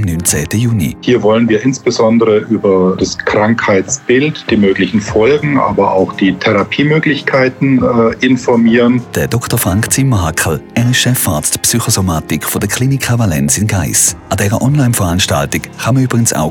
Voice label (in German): Infomercials Long Covid; Kliniken Valens